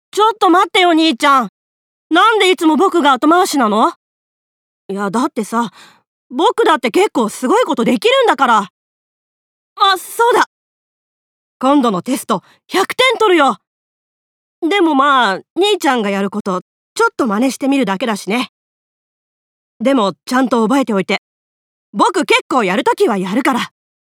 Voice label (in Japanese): 少年